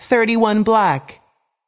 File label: voice over, roulette; frenchroulette3